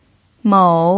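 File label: "mou", pinyin, third tone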